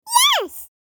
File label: Tiny meme voice "yes"